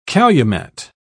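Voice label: "calumet", British English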